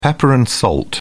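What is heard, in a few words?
"pepper-and-salt", British English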